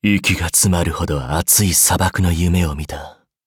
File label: levelup ja; cookierunwiki